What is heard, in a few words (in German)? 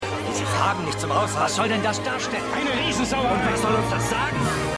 Wuetende Maenner; Pleasantville